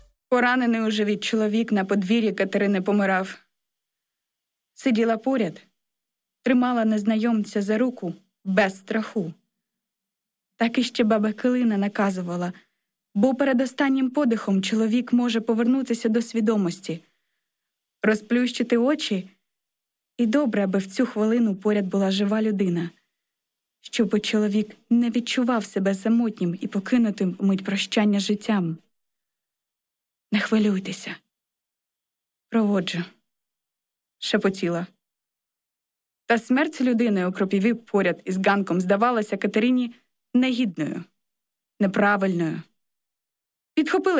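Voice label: Ukrainian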